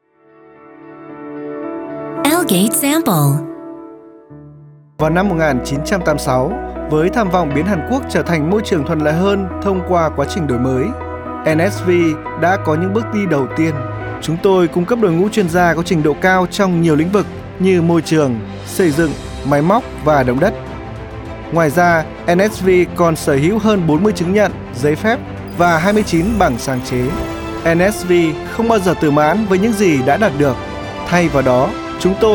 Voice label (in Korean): 베트남 남 홍보 광고